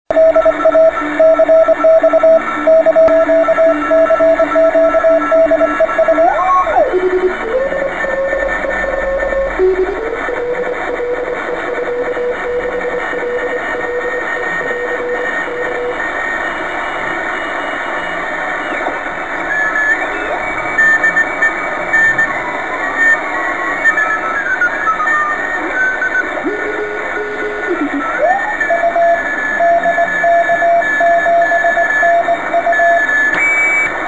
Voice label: 14mhz